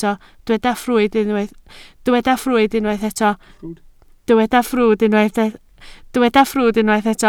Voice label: "ffrwd", Welsh